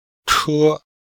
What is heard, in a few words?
"che1", Chinese